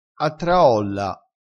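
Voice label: "a traolla", Italian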